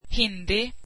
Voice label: "Hindi", swedish

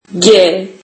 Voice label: turkish alphabet g

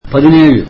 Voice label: tamil seventeen

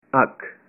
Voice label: "eye", punjabi

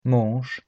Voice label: polish husband, polish

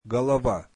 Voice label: russian head

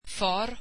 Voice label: swedish father

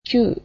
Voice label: "nine", japanese

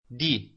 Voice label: italian alphabet d

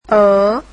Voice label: vietnamese alphabet aaa

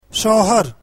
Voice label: urdu husband

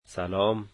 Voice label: hi, Dari

